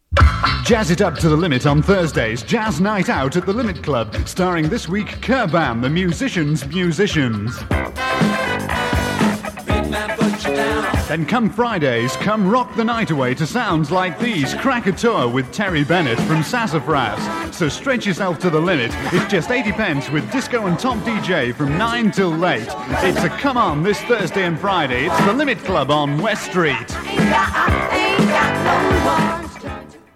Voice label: Radio Hallam Advert; Limit Club - West Street

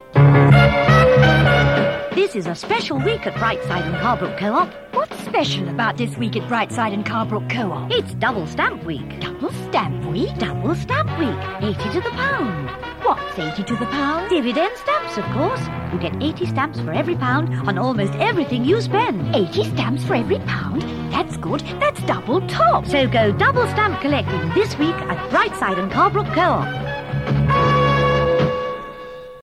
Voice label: Radio Advert, Coop; Radio Hallam; Brightside And Carbrook

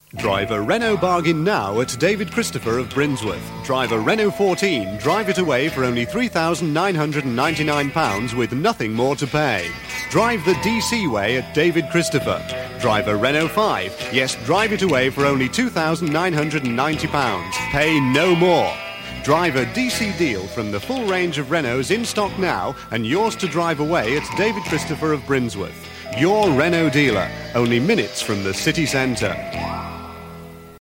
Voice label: Radio Advert; Radio Hallam; David Christopher

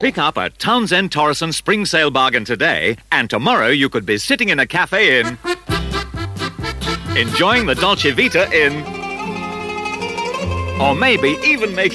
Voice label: Radio Advert; Townsend Thoresen; Radio Hallam

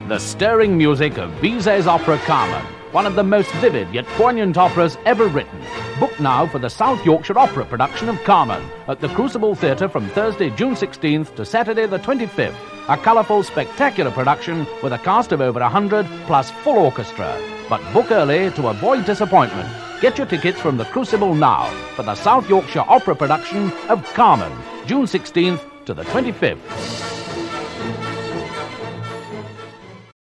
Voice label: Radio Hallam Advert; Carmen Concert

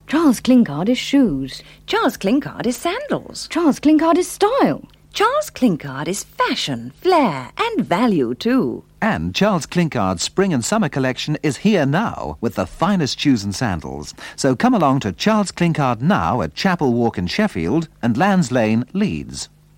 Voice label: Radio Hallam Advert; Charles Klinkard Shoes

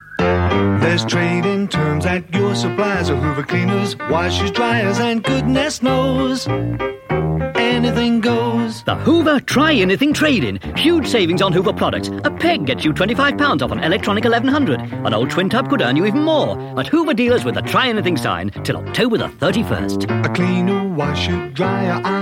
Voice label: Radio Hallam Advert; Hoover Try Anything

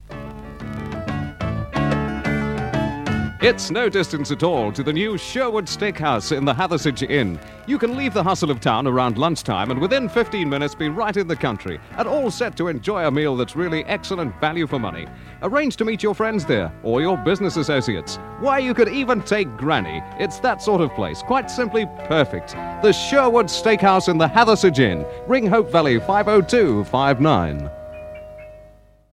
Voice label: Radio Hallam Advert; Sherwood Steak House Hathersage

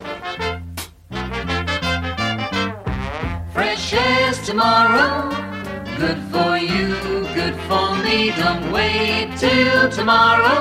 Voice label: Radio Advert, Sliced Loaves; Radio Hallam; Fletchers

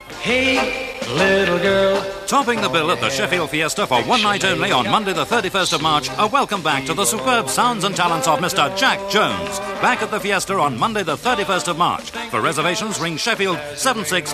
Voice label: Radio Advert; Radio Hallam; Fiests

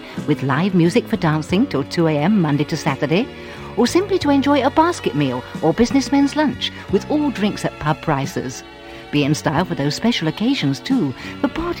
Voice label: Radio Advert, Hotel Barnsley; Radio Hallam; Acres Hotel

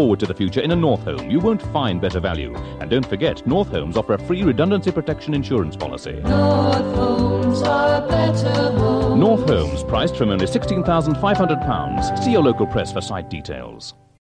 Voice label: Radio Advert, New sites; Radio Hallam; North Homes = 3

